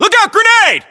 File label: inform incoming grenade, battlechatter, English, US